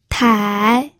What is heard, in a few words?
"tai3", pinyin